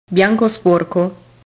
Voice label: "bianco sporco", Italian